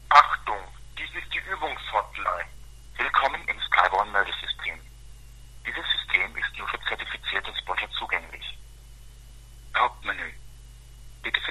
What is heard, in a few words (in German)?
ansage hotline